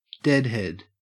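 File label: "deadhead", Australian English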